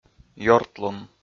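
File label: "ёртлун", Komi-Zyrian, male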